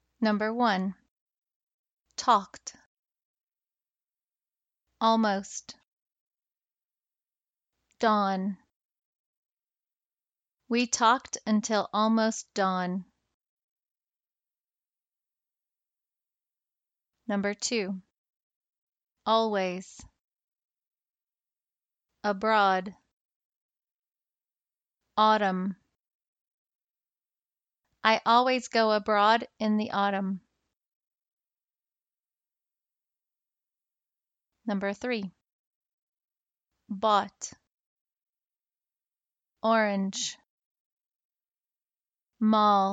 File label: o sentences check target sound, English phonetics